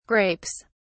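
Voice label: "grapes", British English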